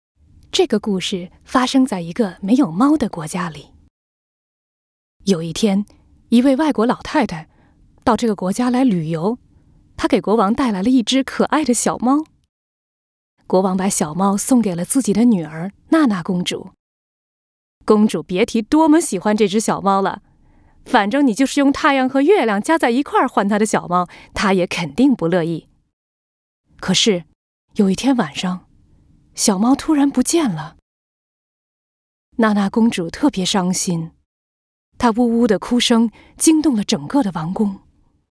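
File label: Chinese, Childrens Story; GoLocalise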